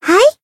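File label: Voice attack, japanese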